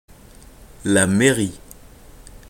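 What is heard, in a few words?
pronunciation fr "la mairie", French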